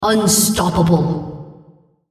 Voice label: female On Killing Spree

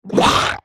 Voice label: effort

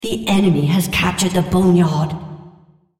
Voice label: female Boneyard; Odin

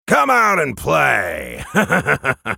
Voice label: taunt, en US; Draven

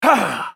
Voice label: spell cast effort; masteryi

